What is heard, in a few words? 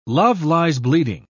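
"love-lies-bleeding", British English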